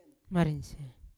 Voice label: "marisian" happy, Yawa